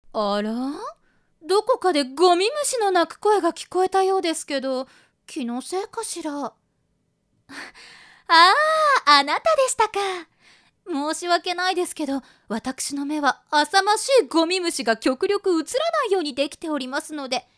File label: woman